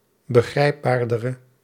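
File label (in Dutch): "begrijpbaardere", Dutch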